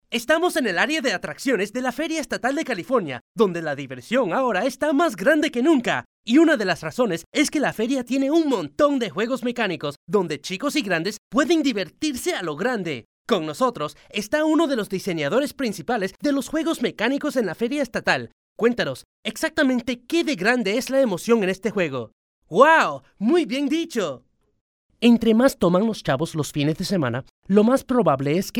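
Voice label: latam male commercial; voice demos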